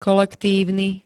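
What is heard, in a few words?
"kolektívny", Slovak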